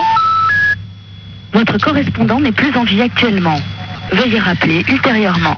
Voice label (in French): repondeur